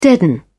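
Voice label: "deaden", en, British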